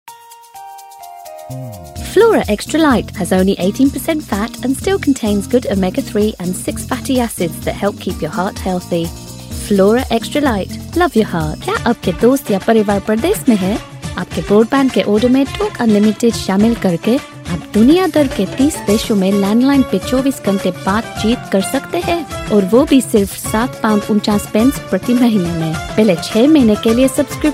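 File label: Gujarati, English Gujarati Hindi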